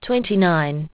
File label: aus, aussie prompts